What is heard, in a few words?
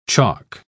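"choc", British English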